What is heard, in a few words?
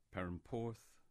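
"Perranporth" English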